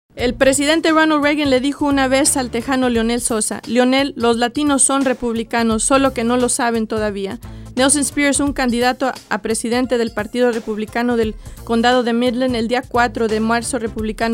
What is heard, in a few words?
Spanish Radio Ad